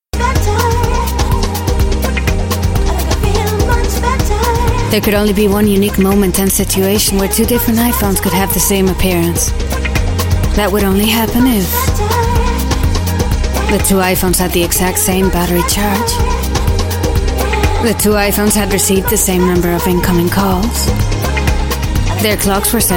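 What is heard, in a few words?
phone app Spanish English female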